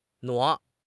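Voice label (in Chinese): "挪", Chinese; HSK6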